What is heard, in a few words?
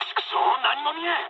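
searchlite mood high, speech japanese; War Thunder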